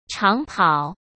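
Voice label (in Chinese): "长跑", Mandarin Chinese; chinese-flashcards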